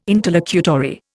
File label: "interlocutory", Indian